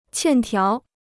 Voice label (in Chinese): "欠条", Chinese